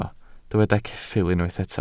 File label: "ceffyl", Welsh